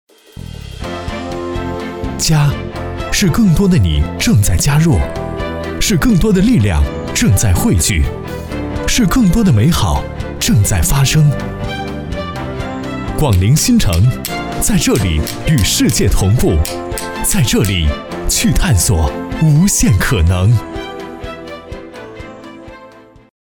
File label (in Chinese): 广告 新城热情活力